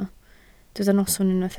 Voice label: "noson", Welsh